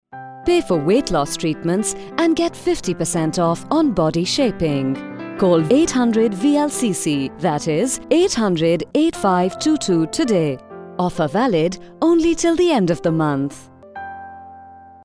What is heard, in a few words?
Radio Spot; VLCC Dubai